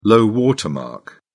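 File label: "low-water mark", British English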